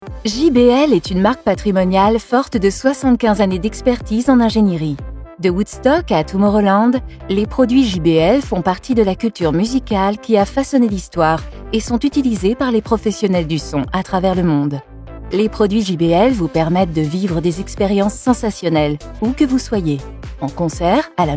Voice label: french automotive